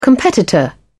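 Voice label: "competitor", British English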